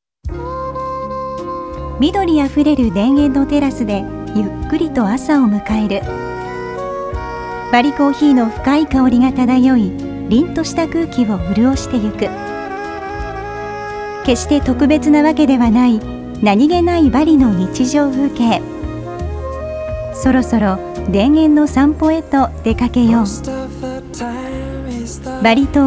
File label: Middle-Tone (Narration)